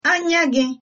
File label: long time no see, igbo